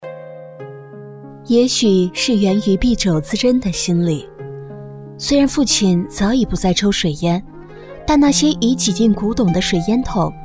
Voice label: 女 微电影 女儿, 国语女声配音; 水烟筒

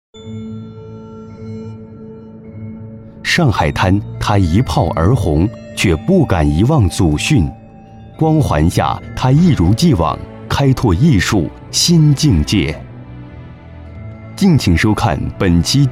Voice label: 男 娓娓道来 片头; 纪录中国片头

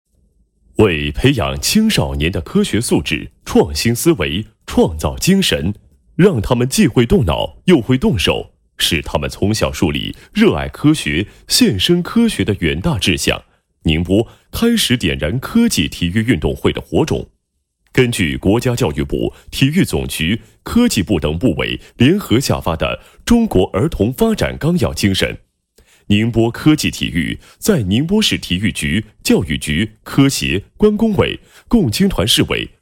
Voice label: 男 纪录片 回顾片配音; 宁波市科技体育运动会

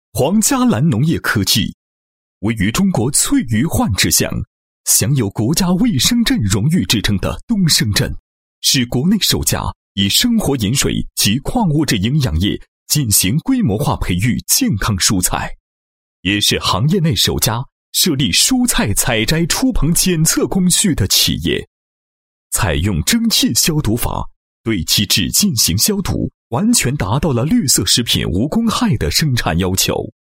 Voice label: 男 企业 农业科技; 黄加蓝

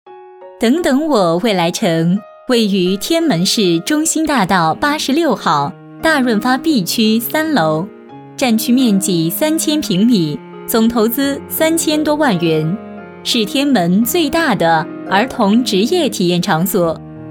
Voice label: 女-房地产-宣传介绍; 等等我未来城

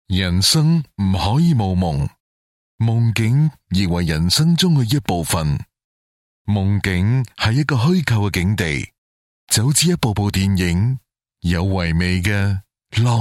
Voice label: 粤男

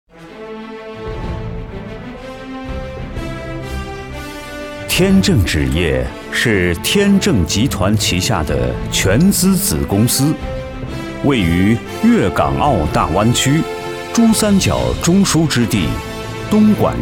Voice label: 男; 天正纸业

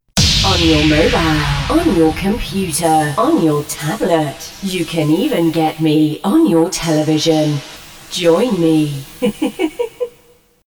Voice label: 外籍英女 特别音效

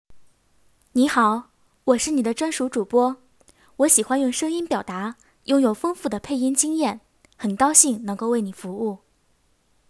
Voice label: 女 专题 旁白自我介绍

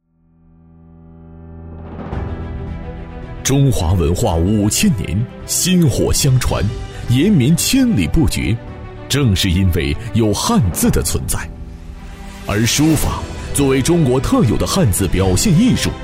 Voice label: 汉字书法旁白配音

